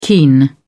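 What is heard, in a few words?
"keen", British English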